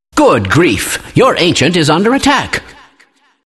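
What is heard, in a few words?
announcer ancient attack your; kleiner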